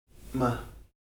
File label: "m̓", Heiltsuk